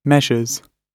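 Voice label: "measures", British English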